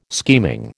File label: "scheming", American